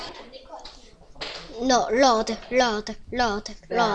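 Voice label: "low", spoken word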